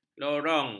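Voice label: "lorong", Malay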